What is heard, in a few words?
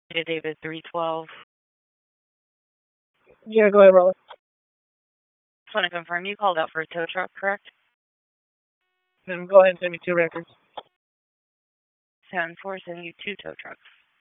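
Raleigh Police Northeast District Dispatch, p25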